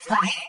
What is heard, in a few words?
"fire", friendly female robot voice; dry; Leevaudio